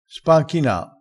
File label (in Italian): "spanchina", crespinese